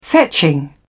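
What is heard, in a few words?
"fetching", English, British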